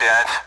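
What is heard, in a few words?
Speech, Russian, AWACS; Digits; DCS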